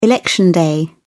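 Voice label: "election day", British English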